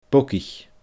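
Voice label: "bogaich", Scottish Gaelic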